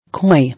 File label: "Coy", English